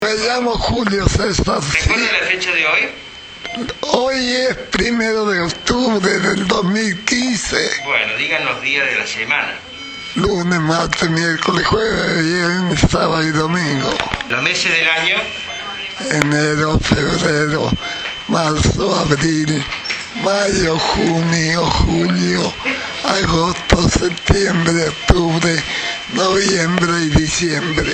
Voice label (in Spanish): SONIDO PRE, Voice before surgery, Papilomatosis, Hombre. 83 años; Score de Severidad de Derkay: 8; Archivo de voz pre operatorio.